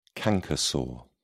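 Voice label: "canker sore", British English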